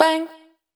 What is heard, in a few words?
Bang - High, Vocal Samples; Words